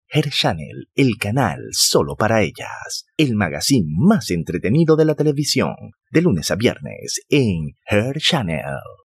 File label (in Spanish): PROMO TV TONO SUAVE